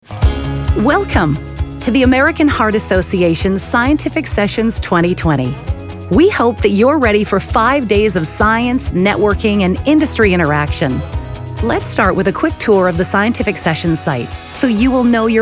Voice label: Narration; Married With Mics; American Heart Assn Medical doctor research medicine hospital